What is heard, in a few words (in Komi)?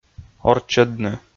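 "орччӧдны", Komi, male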